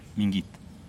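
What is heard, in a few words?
"mingit", Teotitlán del Valle Zapotec; squash seed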